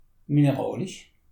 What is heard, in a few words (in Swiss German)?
"mineralisch", alsace